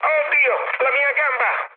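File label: Italian damaged pilot mood med, speech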